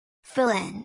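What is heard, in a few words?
"fill in", English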